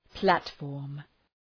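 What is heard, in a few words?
"platform", English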